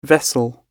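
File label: "Vessel", British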